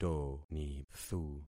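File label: "tonithu", concatenated syllables; Affixing experiment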